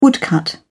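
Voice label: "woodcut", British English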